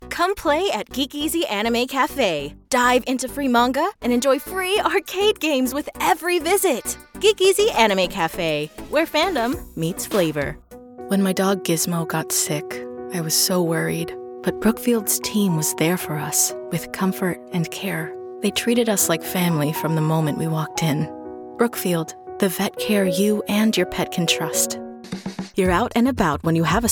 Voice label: Commercial VO